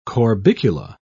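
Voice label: "corbicula", British English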